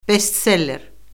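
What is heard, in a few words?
"bestseller", Azerbaijani; Orfoqrafiya Lüğəti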